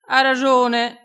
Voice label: "a fa", Crespinese

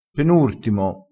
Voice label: "penurtimo", crespinese

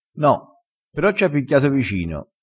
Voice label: "picchia", crespinese

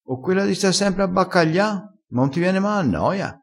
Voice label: "baccaglia", crespinese

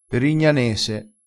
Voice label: "perignanese", crespinese, vocabolario dialettale

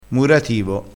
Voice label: "murativo", crespinese

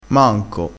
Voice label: "manco", crespinese

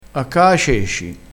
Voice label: "a caaceci", Crespinese

